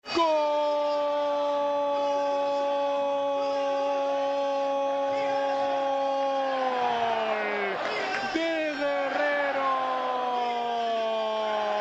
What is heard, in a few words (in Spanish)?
NARRACION GOL